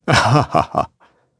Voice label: Vox Happy; Siegfried; kingsraid

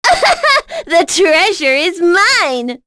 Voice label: Miruru Vox Victory, voices heroes en; kingsraid-audio

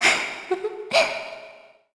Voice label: Vox Happy; kingsraid

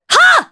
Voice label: Attack, jp; Naila; kings raid

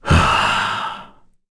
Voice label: Vox Think, kr; Bernheim; kingsraid

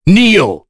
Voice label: Vox Skill, en; Kain; kingsraid; voices heroes en

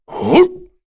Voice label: Jump, kr; Dakaris; Kings Raid

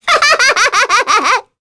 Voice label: Happy; Sonia; kingsraid

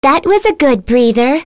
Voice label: Vox Victory, en; Lewsia; kingsraid; voices heroes en

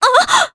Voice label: Vox, jp; Juno; kingsraid

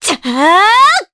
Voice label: Vox Casting jp; Juno; kings raid

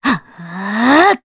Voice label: Vox Casting, Jp; voices; heroes; Scarlet; kingsraid

